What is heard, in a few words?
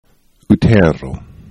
"utero", esperanto